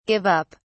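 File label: "give up", English, British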